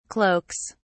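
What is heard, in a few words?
"cloaks", British English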